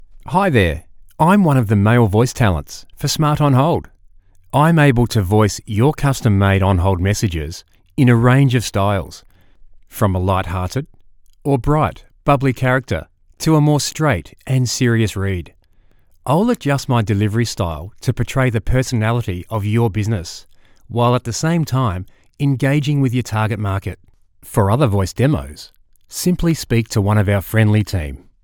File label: Male; Voice Over artists; Smart On Hold Messages